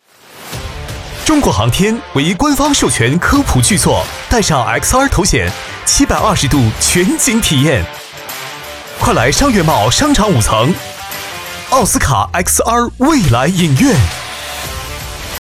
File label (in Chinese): 男 广告 快闪广告